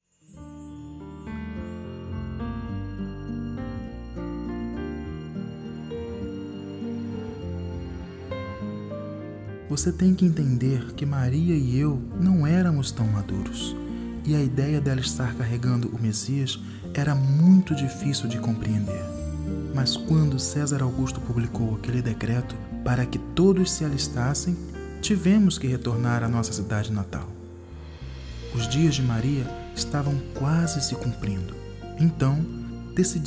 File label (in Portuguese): Narracao